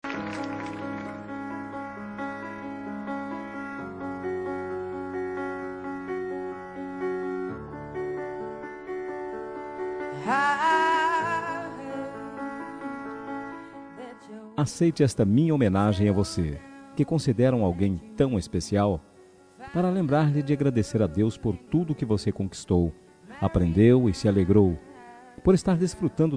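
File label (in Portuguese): carinho masc, Telemensagens; Telemensagem Carinho – Voz Masculina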